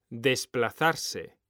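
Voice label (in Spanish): "desplazarse", Spanish; mekanta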